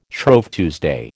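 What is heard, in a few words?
"Shrove Tuesday", American English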